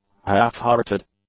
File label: "half-hearted", english; adjectives